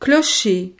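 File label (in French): "clocher", French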